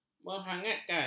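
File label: "menghangatkan", Malay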